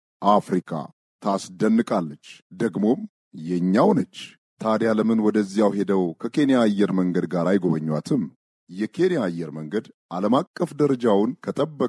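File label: Amharic, male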